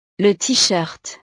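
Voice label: "le tee shirt", French; ropa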